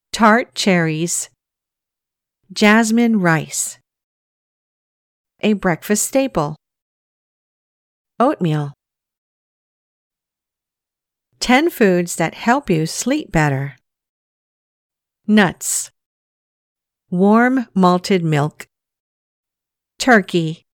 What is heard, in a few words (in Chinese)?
外籍女英 外语 美式英语 语音